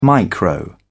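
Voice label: "micro", British English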